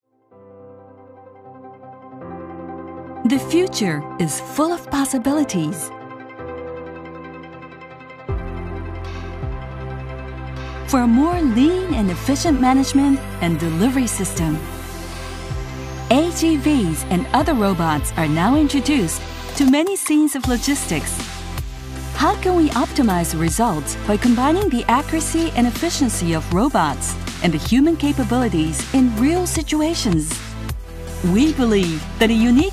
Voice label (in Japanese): 洗練された、知的、信頼できる; AIOI SYSTEMS